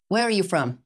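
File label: VoiceActing Spanish, female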